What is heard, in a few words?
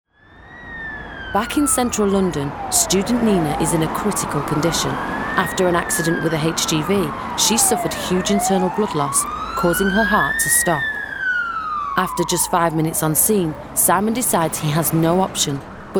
serious gentle narration